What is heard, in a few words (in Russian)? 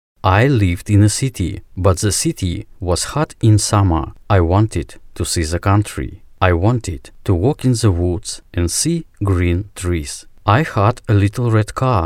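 английский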